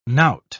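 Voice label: "knout", English, British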